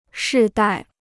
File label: "世代", Chinese